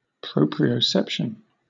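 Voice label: "proprioception", British English; pronunciations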